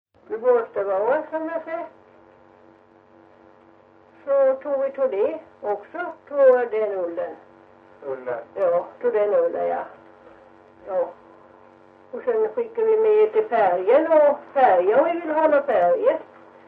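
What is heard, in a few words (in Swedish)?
Ventlinge Öland, dialekter; Folkminnen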